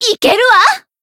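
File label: damaged, Japanese; sherinu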